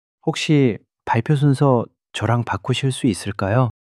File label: shy